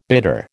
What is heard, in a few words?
"bitter", American